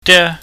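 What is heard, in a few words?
"de", Cantonese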